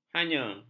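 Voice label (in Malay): "hanya", Malay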